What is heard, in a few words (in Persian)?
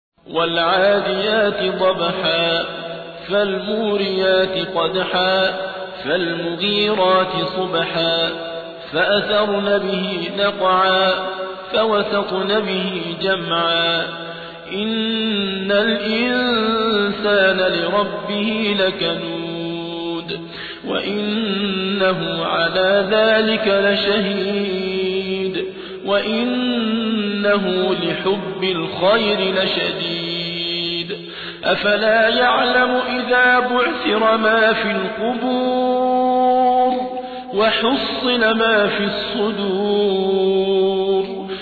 ترتیل سوره عادیات; صابر عبدالحکم